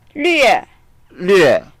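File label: "lve4", Mandarin Chinese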